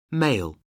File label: male; Oxford Word Skills Intermediate